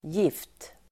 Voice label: "gift", Swedish

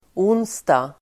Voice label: "onsdag", Swedish

